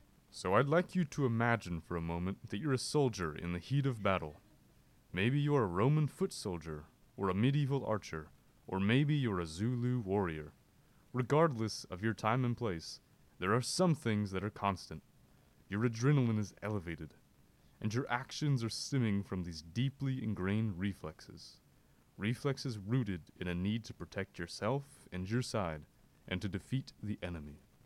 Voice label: lecture